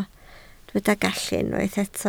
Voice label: "gallu", Welsh